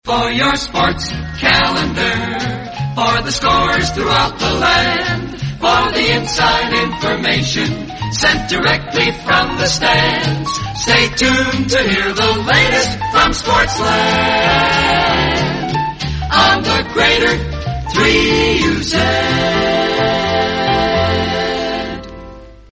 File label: australian otr promos and idents; 3uz sports calender